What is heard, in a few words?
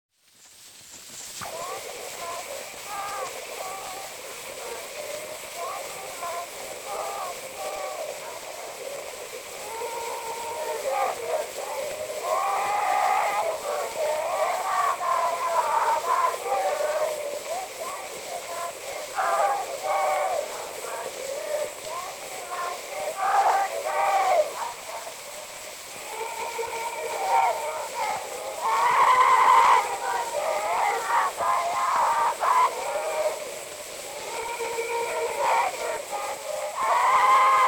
Zulu Recordings; Father Franz Mayr